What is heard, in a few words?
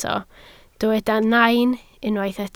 "nain", Welsh